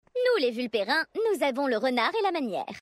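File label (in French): French Female Blague; Vulperin; Renard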